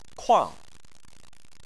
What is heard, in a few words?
"kuang4", Chinese